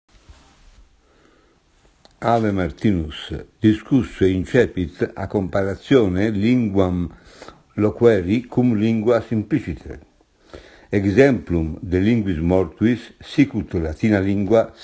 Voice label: speaking Latin